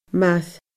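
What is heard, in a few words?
"mair", Scottish Gaelic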